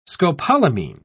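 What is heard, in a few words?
"scopolamine", British English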